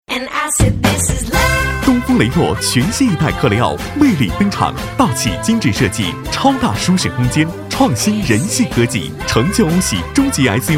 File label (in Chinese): 汽车广告